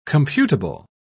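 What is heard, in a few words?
"computable", English, British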